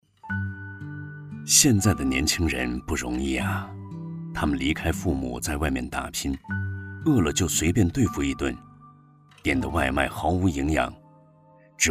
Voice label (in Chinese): 其他 旁白 口语讲述, 男国